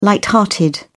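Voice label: "light-hearted", English, British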